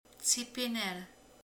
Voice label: kiált, romani

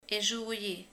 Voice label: nő, romani

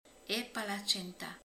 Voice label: palacsinta, romani

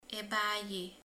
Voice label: "disznó (nőstény)", romani

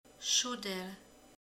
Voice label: "dob (ige)", romani

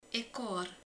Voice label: nyak, romani

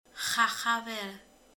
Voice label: etet, romani

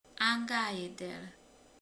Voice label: ölel, romani

